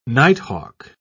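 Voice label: "nighthawk", English, British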